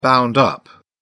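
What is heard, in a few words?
"bound up", British English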